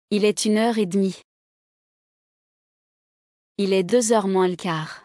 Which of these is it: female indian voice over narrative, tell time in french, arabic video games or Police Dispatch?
tell time in french